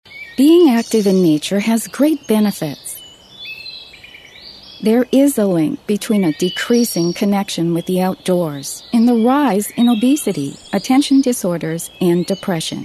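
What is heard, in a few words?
English, US, female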